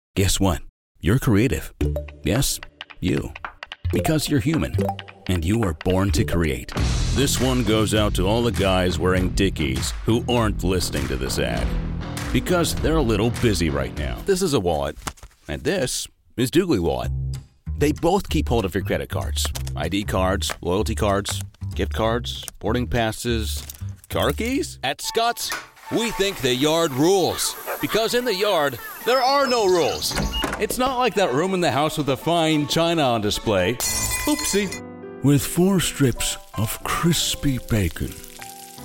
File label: Commercial